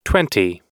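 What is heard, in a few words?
"twenty", US English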